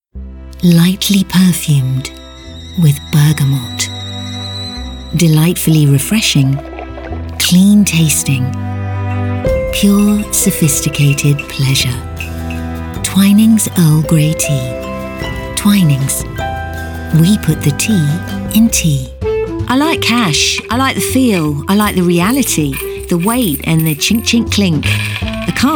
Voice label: Commercials